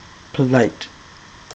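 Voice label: "polite", English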